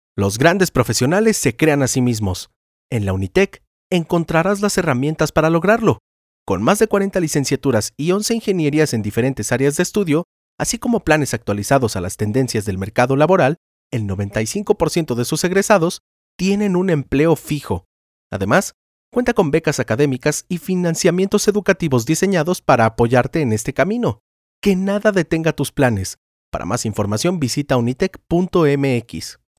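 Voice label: comercial, Mexican Spanish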